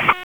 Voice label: Police Northeast District Disp, p25; Raleigh